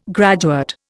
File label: "graduate", Indian